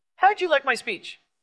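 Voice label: Voice Acting, Spanish, male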